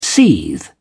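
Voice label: "seethe", British English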